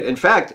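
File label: "in fact", American English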